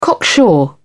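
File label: "cocksure", English, British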